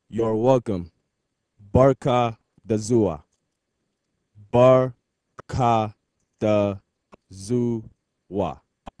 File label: Hausa Welcome